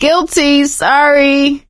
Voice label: sorry, survivor voice; source engine; left 4 dead 2; producer

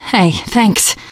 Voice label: thanks, teen girl

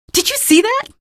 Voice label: kill, female; episodic; episode 1; Source engine

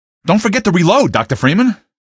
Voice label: dont forget reload, male; Source Engine; video game; npc

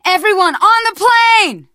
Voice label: arrive plane, teen girl, survivor voice; source engine; left 4 dead 2

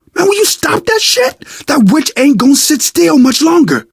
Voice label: witch getting angry, survivor voice

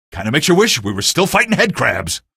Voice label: alert gunship, male; video games; npc; source engine; episodic; episode 1

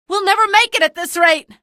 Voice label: evac casualty, female; episodic; episode 1; Source engine

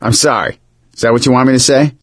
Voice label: sorry, survivor voice; source engine; left 4 dead 2; gambler

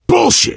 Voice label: swear, player survivor voice biker

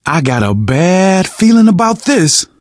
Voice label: reaction apprehensive, survivor voice, manager; source engine; left 4 dead 2

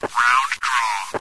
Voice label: round draw, radio; cstrike; Source engine

